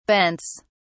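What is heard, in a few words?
"bents", English, British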